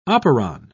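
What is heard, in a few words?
"operon", British English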